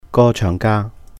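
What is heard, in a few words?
"gō cheung gà", Cantonese; singer; 歌唱家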